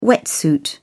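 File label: "wetsuit", British English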